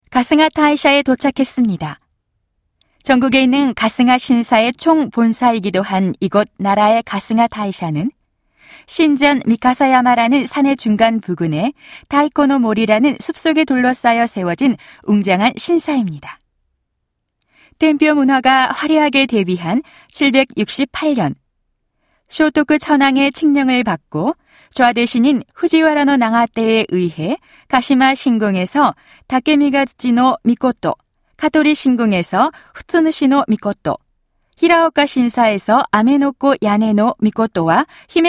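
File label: Korean, female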